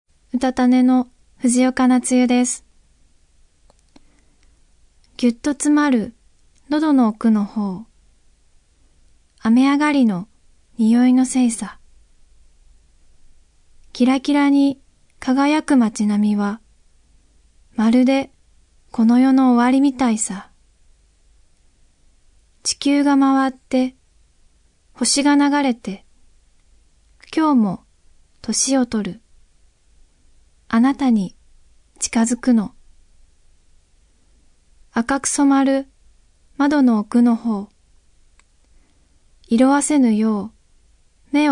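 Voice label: Narration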